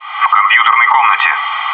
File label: "computer room", bot; maxpack